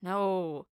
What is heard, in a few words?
"No", Human Female